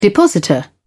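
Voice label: "depositor", English, British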